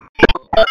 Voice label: Police Sheriff Dispatch